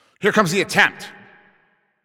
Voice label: Shot On Goal, Announcer